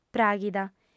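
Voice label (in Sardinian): "pràghida", female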